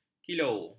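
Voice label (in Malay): "kilo", Malay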